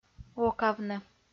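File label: "окавны" Komi-Zyrian, female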